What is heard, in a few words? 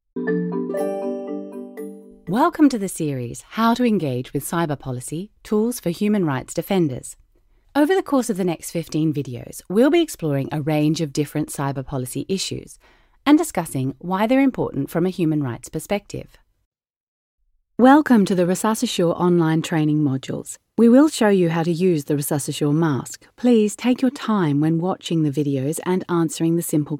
corporate voicereel